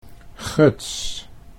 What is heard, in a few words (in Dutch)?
"guts", Dutch